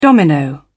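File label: "domino", British English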